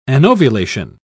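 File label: "anovulation", British English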